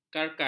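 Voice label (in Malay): "karkas", Malay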